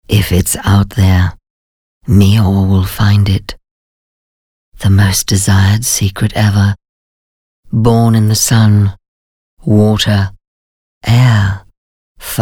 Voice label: English Intimate

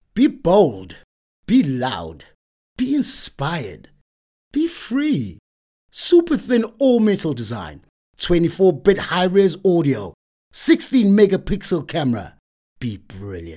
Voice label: English hard sell; OSM Talent

